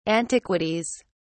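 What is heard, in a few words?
"antiquities", British English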